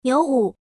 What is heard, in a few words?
female